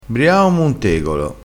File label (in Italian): "briao om un tegolo", crespinese